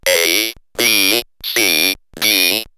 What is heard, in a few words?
Robot A, B, C, D, robot vox; X-Static Goldmine